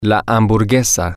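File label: the hamburger, spanish, male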